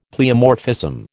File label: "pleomorphism", American